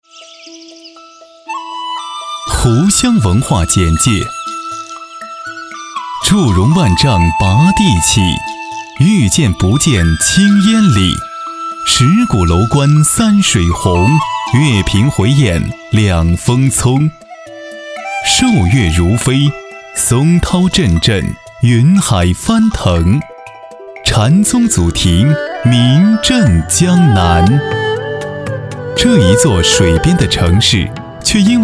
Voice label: 男4 专题; 湖湘文化简介

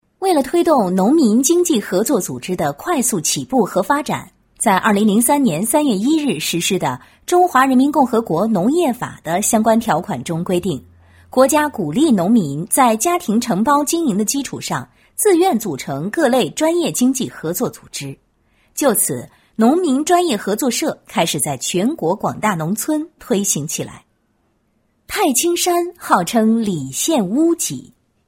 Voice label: 女 专题 农民专业合作社

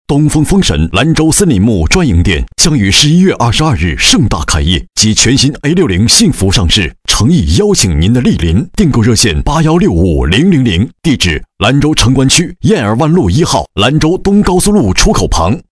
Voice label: 男 广告; 东风风神

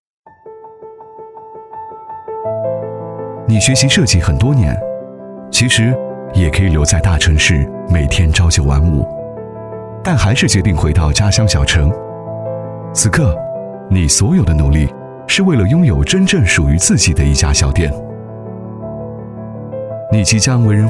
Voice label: 男 独白 金融（深沉品质 口语）; 融托金融

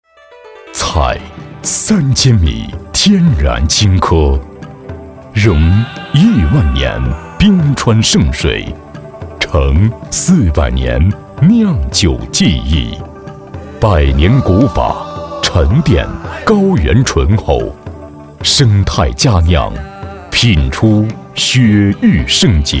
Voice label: 男 广告 青稞酒

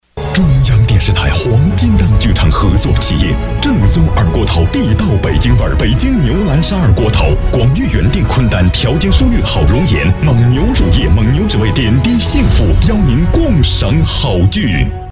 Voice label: 男 片花 共赏好剧

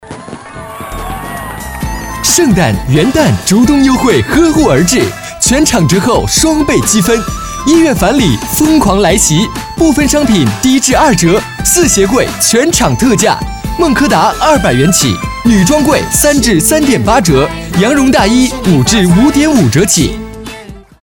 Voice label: 男 促销 圣诞元旦 活力 激情

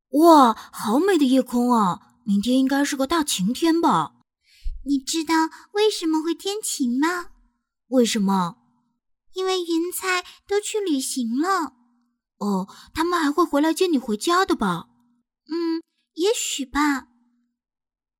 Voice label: 女 动漫 卡通人物男童女童对话

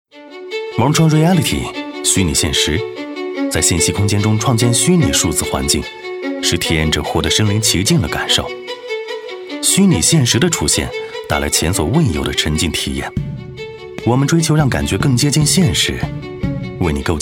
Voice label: 男 广告 随性时尚

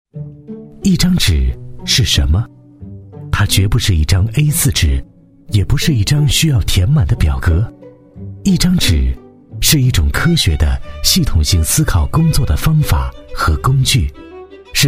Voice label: 男-专题-产品解说类 轻松; 一张纸

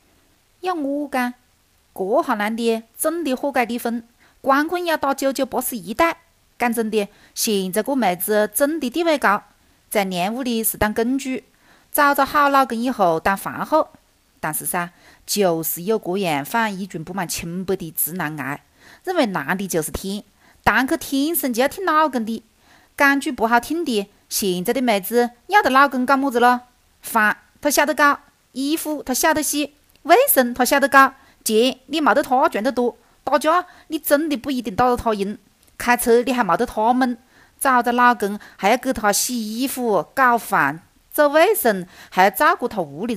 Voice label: 女 方言 长沙话

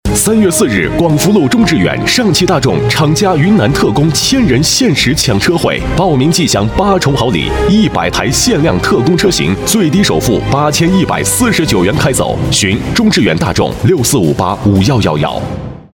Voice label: 男 广告; 上汽大众

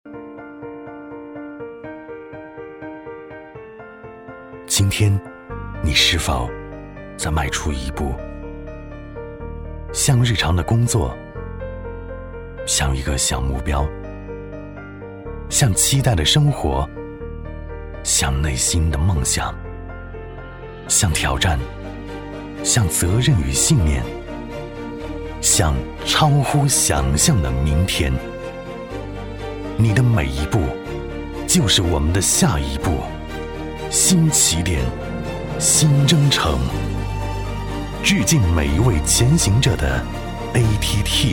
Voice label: 男, 旁白

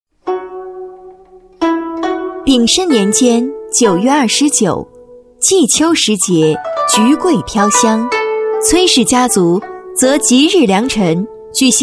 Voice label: 女-专题-祭祖试音(沉稳); 崔氏家族

